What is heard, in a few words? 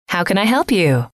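how can I help you, female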